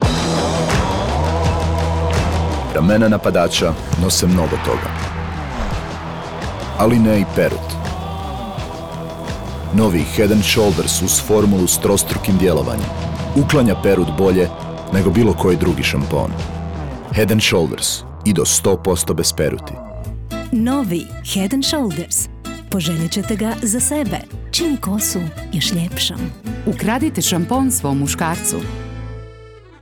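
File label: croatian male commercial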